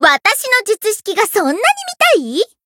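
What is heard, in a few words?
Voice Japanese; alma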